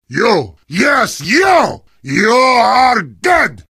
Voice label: heavy taunts; video games; source engine; tf

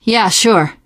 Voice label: yes, teengirl; survivor voice

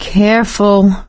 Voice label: warn careful, survivor voice; source engine; left 4 dead 2; producer

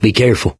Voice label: warn careful, survivor voice mechanic; source engine; left 4 dead 2

